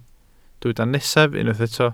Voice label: "nesaf", Welsh